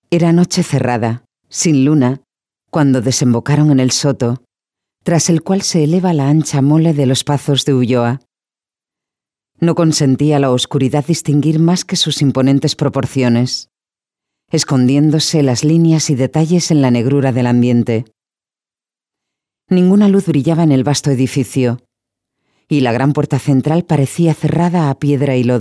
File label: spanish audiobooks